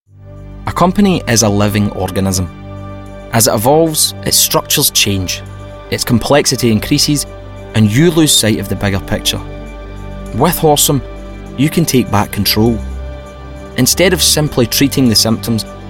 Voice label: Corporate Extract